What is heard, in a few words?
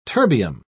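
"terbium", British English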